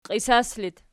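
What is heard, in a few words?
"qiisas" locative, Archi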